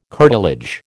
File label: "curtilage", American